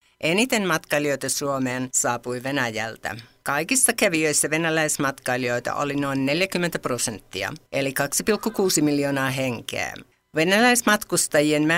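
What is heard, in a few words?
Finnish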